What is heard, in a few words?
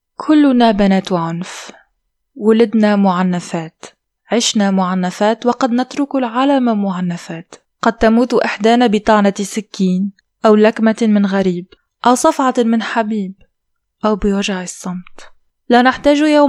Arabic, Female